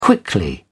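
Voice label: "quickly", British English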